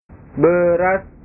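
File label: "beras", Indonesian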